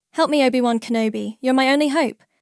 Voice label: help me obi wan kenobi youre my only hope, young british girl